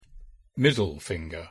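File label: pronunciation "middle finger", English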